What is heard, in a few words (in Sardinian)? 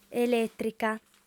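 "elètrica", female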